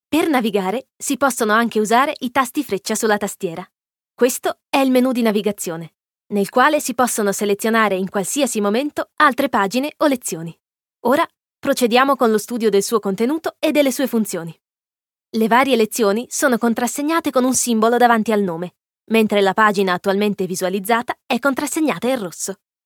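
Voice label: Italian elearning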